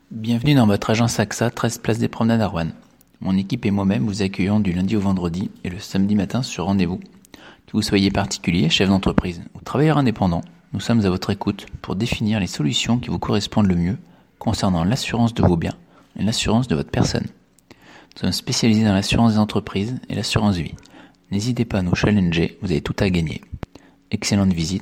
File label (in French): message accueil vocal